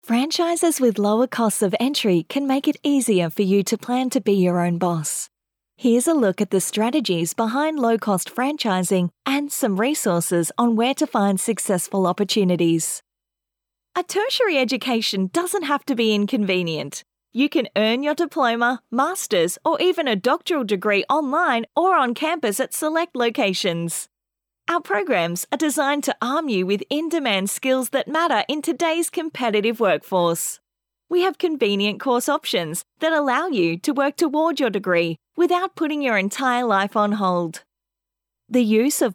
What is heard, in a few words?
Corporate Voice Over